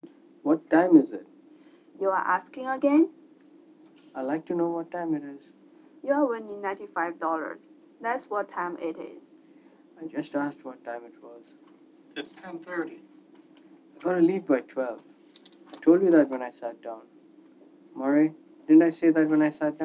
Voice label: three person stereo mic; stereo mic array